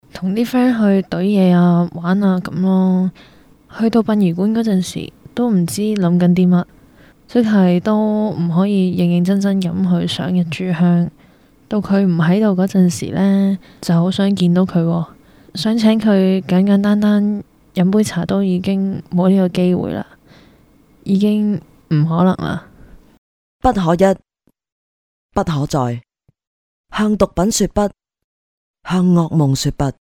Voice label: cantonese; shame to parents; radio